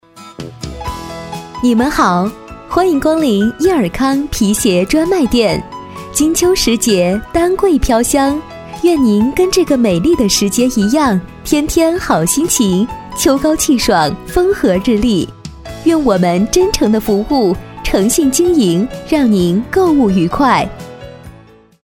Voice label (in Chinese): 广告, 国语女声配音; 意尔康